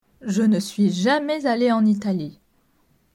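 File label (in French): "Je ne suis jamais alle en Italie", French; grammaire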